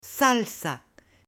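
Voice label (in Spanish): "salsa", mujer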